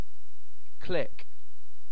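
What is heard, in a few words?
"click", British English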